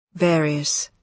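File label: "various", British English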